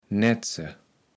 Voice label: "nätze", kaevels platt

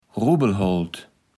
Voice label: "Rubbelholt", Platt

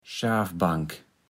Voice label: "Schafbank", Platt

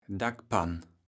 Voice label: "Dackpann", kaevels platt

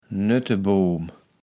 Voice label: "Nötteboom", kaevels platt

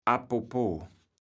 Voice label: "apopo", kaevels platt

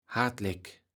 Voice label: "hartlek", kaevels platt

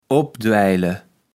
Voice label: "opdwaijle", kaevels platt

